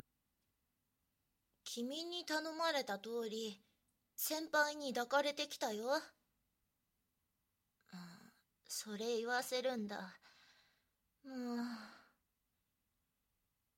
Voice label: 囁きと耳舐め; 寝取られ報告; ねっとり絡みつく; 正常位で燃えるような取り返しセックス

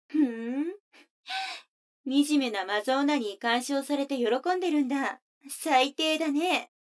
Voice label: 女の子 台詞

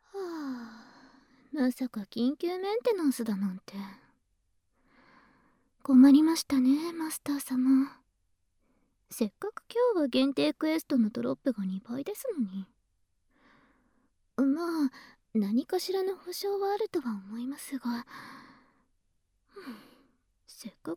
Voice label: 寝かしつけ; メンテ中の寝かしつけ

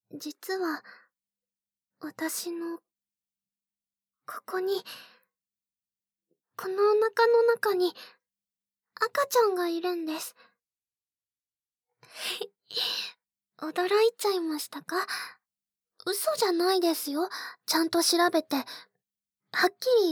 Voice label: 妊娠報告, ASMR; セイラちゃんの妊娠報告

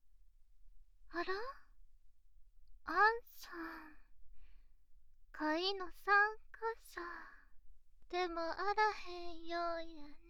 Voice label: 声のみ お耳ぺろぺろ; お耳ぺろぺろしたろなぁ

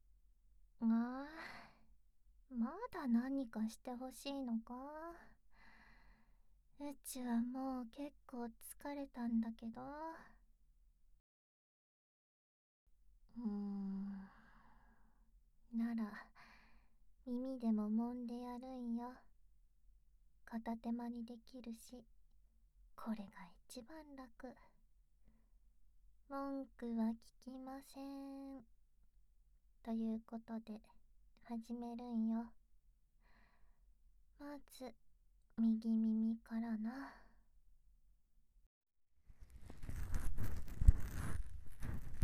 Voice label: 嫁の耳マッサージ, ASMR

